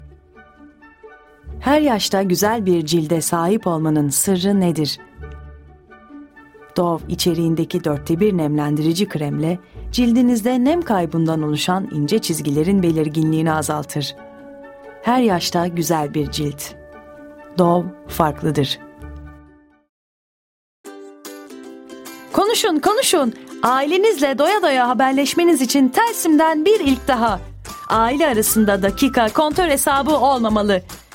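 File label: TR Commercial, Turkish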